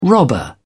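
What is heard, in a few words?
"robber", British English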